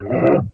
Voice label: go home, lo-fi jibber jabber

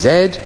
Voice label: "z", male British; Alphabet

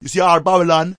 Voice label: unintelligible rasta

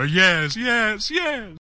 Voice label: "yes yes yes", male; MALE MISC

